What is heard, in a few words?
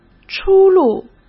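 "出路", Mandarin Chinese; hsk; chinese-flashcards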